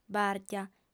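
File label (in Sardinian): "bàrchia", female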